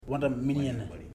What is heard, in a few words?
"woman", Ipili